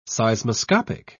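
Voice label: "seismoscopic", British English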